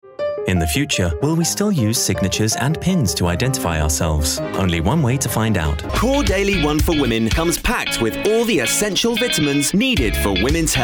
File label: English, Male